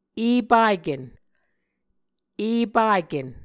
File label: "epaken", Muscogee